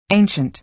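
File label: "ancient", American English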